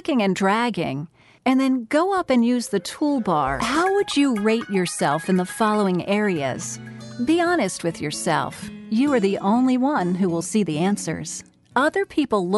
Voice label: E Learning